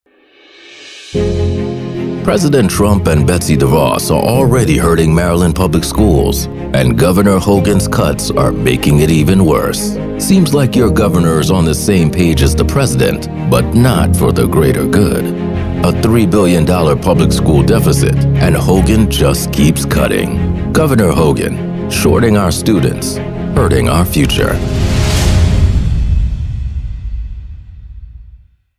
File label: political ad; No More Gov. Hogan